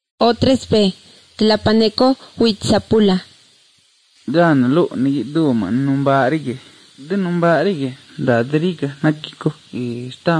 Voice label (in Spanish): spanish mexico